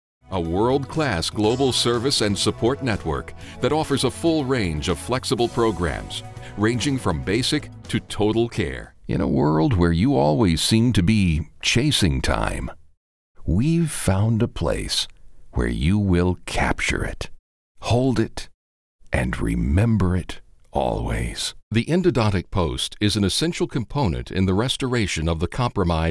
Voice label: Narration